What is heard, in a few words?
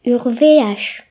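"trip", Breton; gcompris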